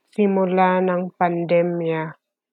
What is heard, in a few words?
Tagalog